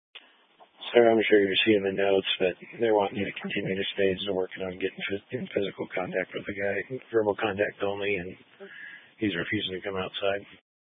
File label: Grand Junction Fire Department Dispatch